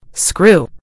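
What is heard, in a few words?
"screw", British English; gcompris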